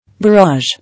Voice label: "Barrage", English

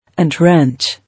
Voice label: "Entrench", English

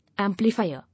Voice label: "Amplifier", English

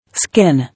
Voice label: "Skin", English

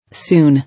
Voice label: "Soon", English

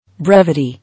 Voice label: "Brevity", English

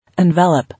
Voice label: "Envelop", English

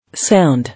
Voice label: "Sound", English

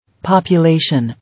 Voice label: "Population", English

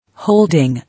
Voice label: "Holding", English

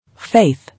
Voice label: "Faith", English

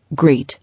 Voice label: "Greet", English

